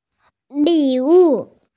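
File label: "li3wu4", Chinese, female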